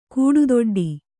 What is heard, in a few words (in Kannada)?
"ಗಟ್ಟಿದುಡ್ಡು", Kannada